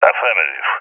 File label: affirmation, balkan; balkan epic